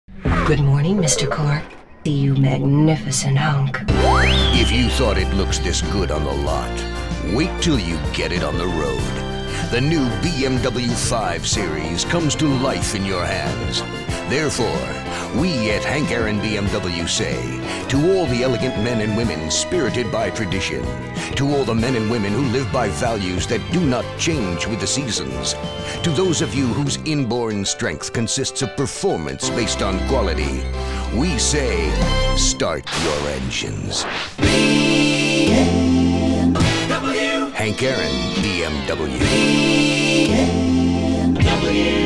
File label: Radio; BMW